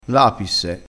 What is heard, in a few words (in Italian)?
"lapisse", crespinese